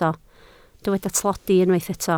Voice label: "tlodi", Welsh